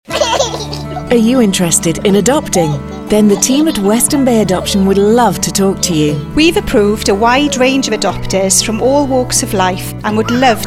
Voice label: English; Western Bay